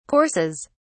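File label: "courses", British English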